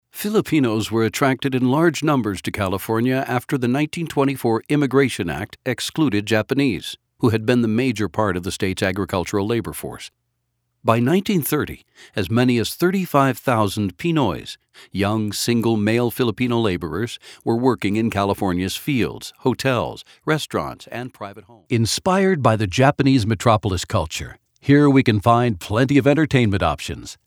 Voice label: Tour Guide